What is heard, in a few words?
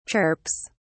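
"chirps", English, British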